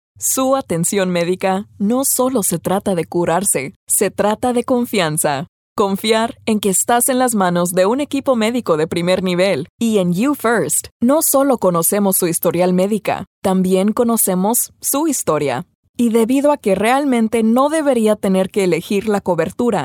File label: Sincere